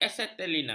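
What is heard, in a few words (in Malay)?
"asetilena", Malay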